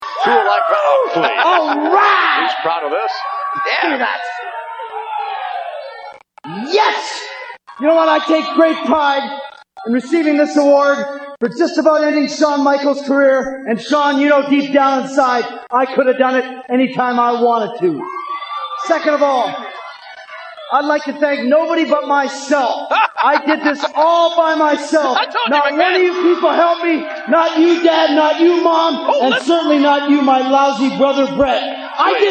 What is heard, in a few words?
acceptance speech; owen hart